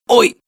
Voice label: "Oi", Male Voice; Recorded Voice Sound Effects

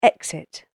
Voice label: "Exit" British Female Voice; Recorded Voice Sound Effects; human voice sound effect; spoken word

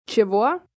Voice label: "What", male, foreign, Russian; Response

